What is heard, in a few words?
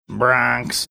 saying bronx funny